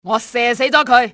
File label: killed him, cantonese; XBOX; enemy